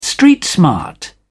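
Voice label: "street smart", English, British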